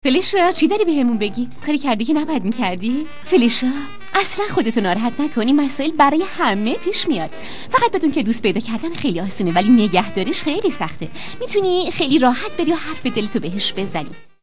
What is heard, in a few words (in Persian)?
نمونه صدای خانم; آواگر